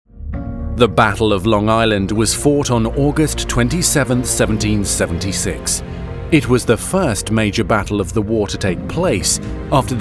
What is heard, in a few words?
languages male narration